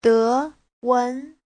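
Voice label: "Déwén", Mandarin Chinese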